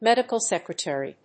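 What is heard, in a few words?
"medical secretary", English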